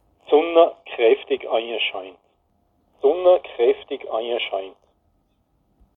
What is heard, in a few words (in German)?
Mundart; tannheimertal